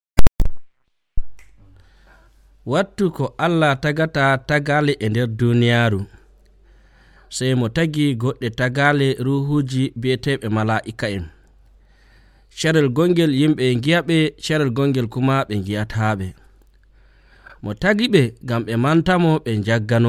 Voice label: Fulfulde Nigerian; The Spirit World

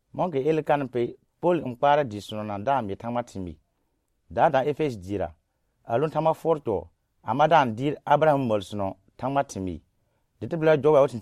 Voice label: Lobiri; Pol Efɛji Diira; God Works in Ephesus

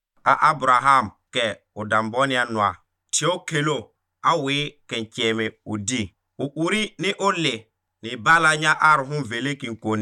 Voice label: Pougouli; Abraham et Isaac; Abraham offers Isaac

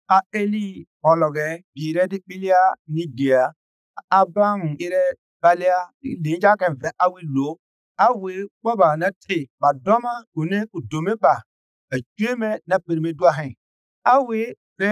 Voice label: Pougouli; La promess du Sauveur; Isaiahs Prophecy about the Promised Savior

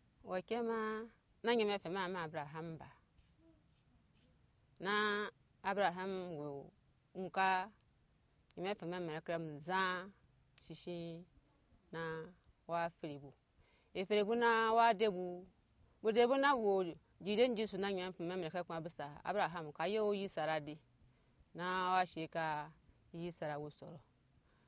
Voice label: Anufo; Accomplissement de la promesse d'Abraham; Promise to Abraham Fulfilled

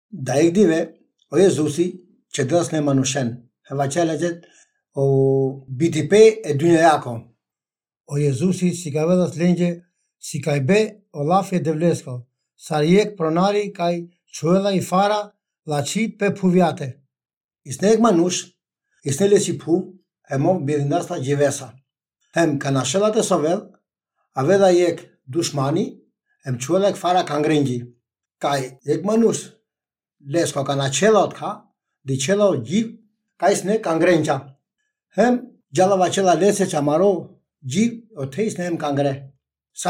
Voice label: Romani Balkan; Wheat and Weeds

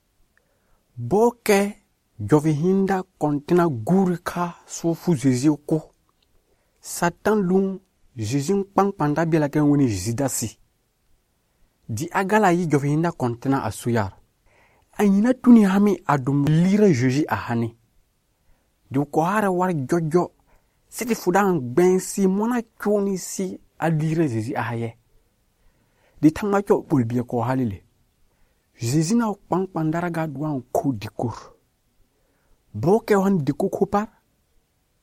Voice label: Lobiri; Kɔ̃tini diko kho fu pa; The Last Supper

